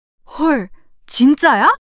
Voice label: surprise, monologue